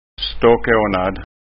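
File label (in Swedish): "stockanad", Scanian dialect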